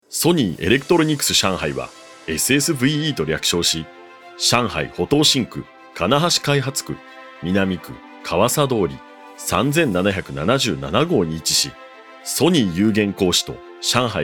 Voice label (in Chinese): 日语 男声 老师; 日语男声号老师